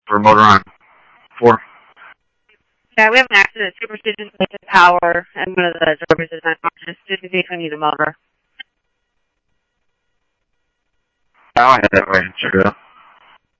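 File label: Simulcast police department, scanner audio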